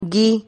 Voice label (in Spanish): "gui", Silabas